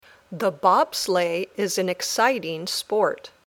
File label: Dictation